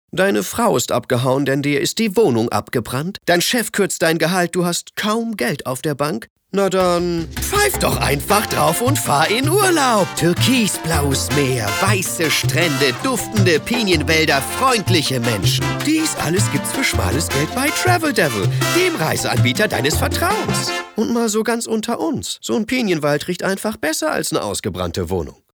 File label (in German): Werbetext